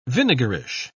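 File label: "vinegarish", English, British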